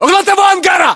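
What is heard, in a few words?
landmark near shed, Russian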